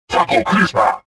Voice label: anger